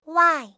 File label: "y", alphabet